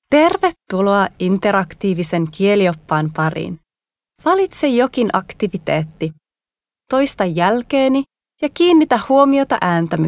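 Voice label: Finnish female corporate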